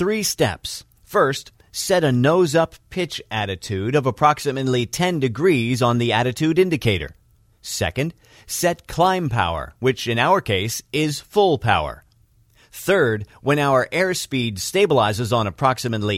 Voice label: Video learning